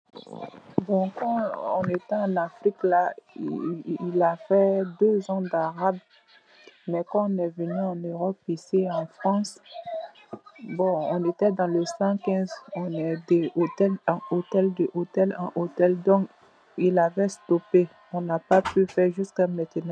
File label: voice message